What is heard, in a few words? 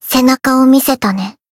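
Voice finish, Japanese; nagi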